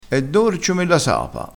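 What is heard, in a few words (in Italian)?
"sapa", vocabolario dialettale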